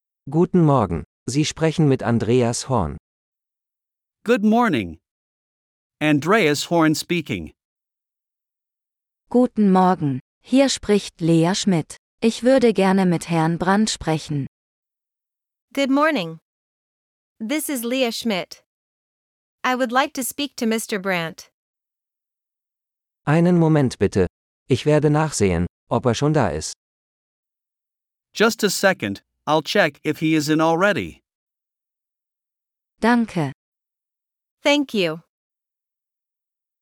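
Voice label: Telephoning - Dialogue (with translations), German; de-en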